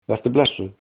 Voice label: goodbye, icelandic